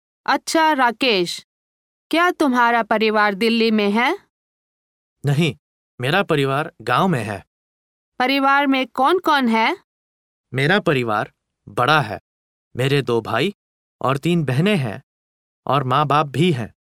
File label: dialogue, Hindi; pariwar; introduce yourself in hindi beginner quiz